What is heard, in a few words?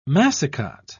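"massicot", British English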